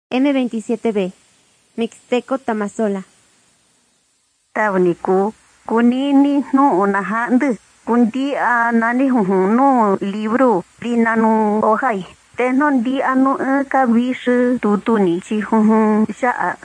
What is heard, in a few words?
mixtec diagnostic spanish mexico